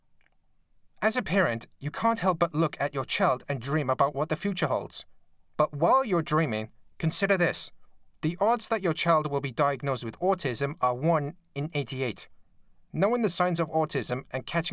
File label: Advert